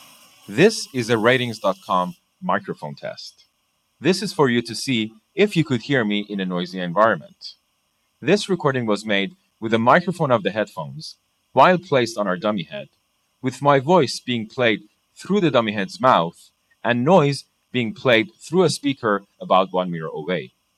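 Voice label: dongle boom noise gate noise, gaming headset; Pink Noise; Swedish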